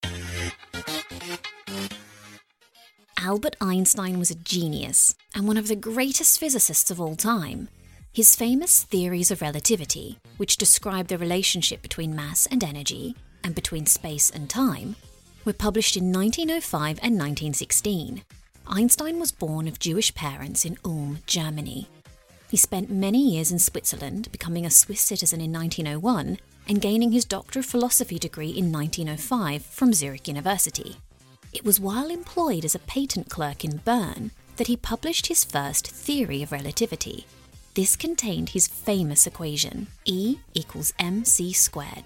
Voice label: UK; Albert Einstein